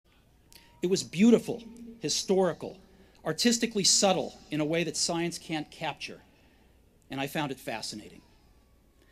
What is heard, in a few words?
Commencement Address; Steve Jobs; 2005; Stanford